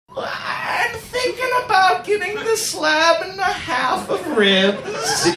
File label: saying im thinking about getting slab and half of ribs funny manner